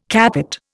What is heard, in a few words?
"capot", Indian